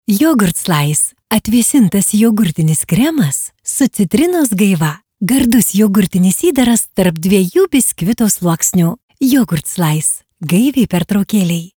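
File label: Lithuanian female characters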